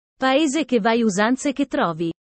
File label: italian sayings about life